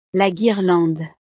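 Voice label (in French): "la guirlande", French; navidad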